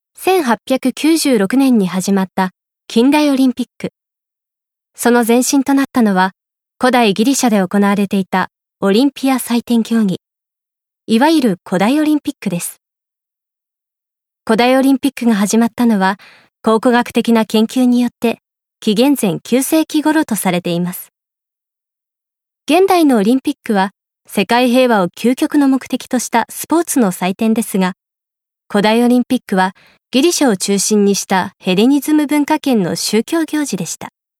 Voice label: 解説ナレ

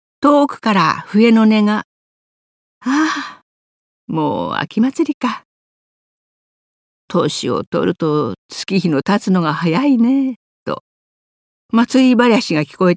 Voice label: 朗読

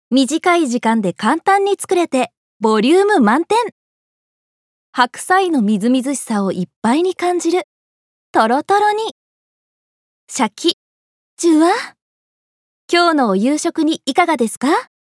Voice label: 食品CM

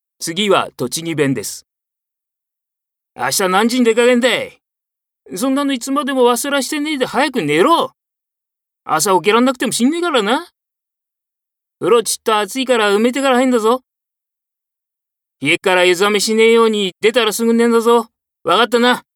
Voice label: 方言

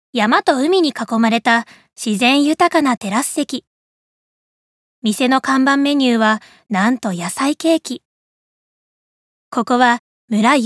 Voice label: カフェ紹介番組ナレーション

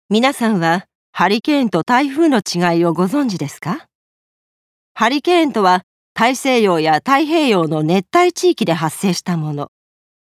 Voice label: 解説ナレーション

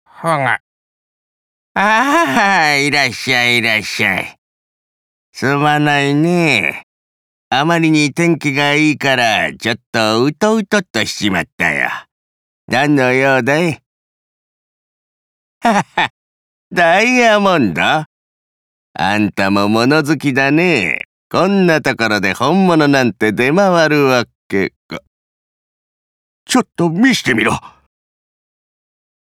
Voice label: 老人

